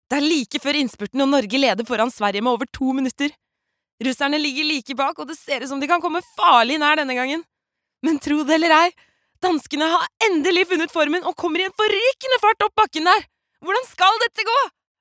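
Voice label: Norwegian dub commentator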